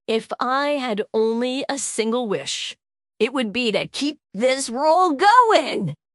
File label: happy, speech; fertig classic games